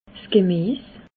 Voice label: "gemies", Alsace

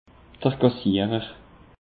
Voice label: "kassierer", alsace; peche

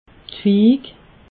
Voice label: "fig", alsace

